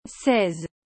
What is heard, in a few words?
"seize", french